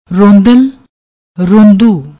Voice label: "rondal", Punjabi